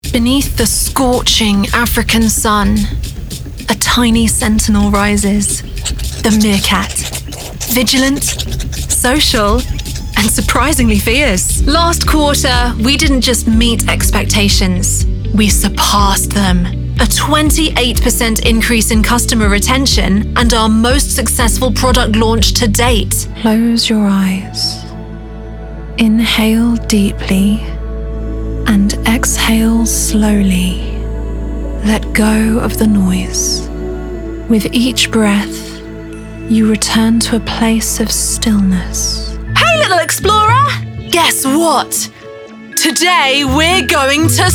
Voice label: Narration